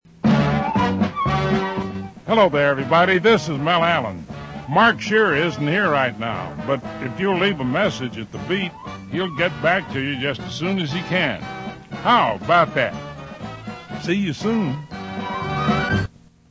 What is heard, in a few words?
with music; Mel Allen; NY Yankees